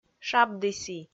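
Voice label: "шабді сі", Komi, female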